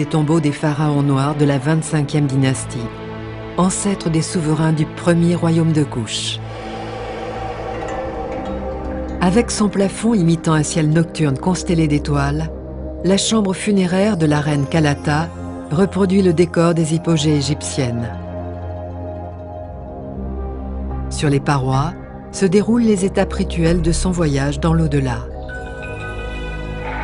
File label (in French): Documentaire Narration Pyramide; Pyramide El Kourou